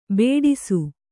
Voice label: "ಬಡಿಸ", Kannada

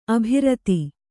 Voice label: "ಅಭಿರತ", Kannada

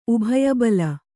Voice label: "ಉಭಯಬಲ", Kannada

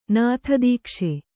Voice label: "ನಾಥದೀಕ್ಷೆ", Kannada

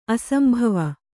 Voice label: "ಅಸಂಭವ", Kannada

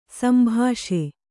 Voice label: "ಸಂಭಾಷೆ", Kannada

